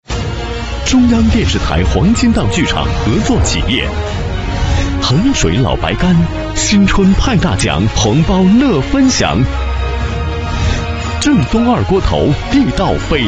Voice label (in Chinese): 央视广告; 黄金剧场